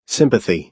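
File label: "sympathy", American English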